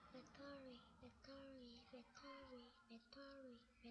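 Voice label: "battery", spoken word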